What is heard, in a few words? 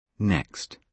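"next", British English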